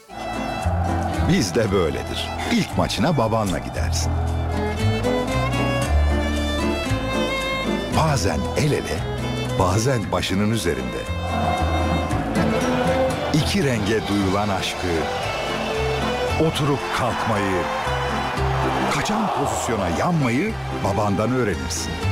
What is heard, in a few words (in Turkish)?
duygusal motive